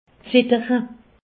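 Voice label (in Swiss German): "zittere", Alsatian; medecine; trembler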